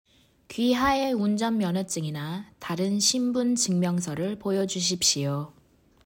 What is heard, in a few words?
Korean